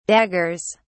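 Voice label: "daggers", British English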